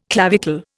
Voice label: "clavicle", Indian